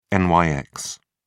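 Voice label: "nyx", English, British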